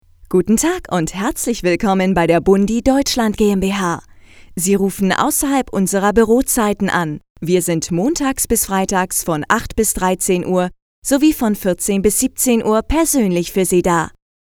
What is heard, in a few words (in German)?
Telefonansagenariation